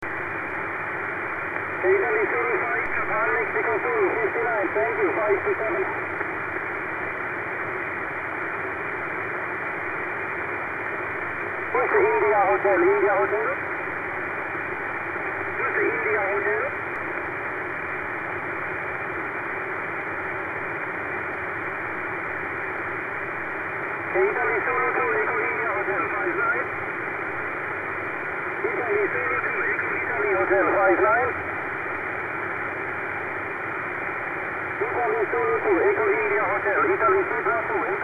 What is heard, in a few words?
12m ssb